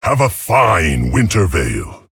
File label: Male Tauren HAPPY HOLIDAYS